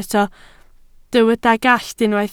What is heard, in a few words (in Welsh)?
"gallt", Welsh